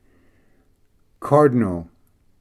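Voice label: "cardinal", English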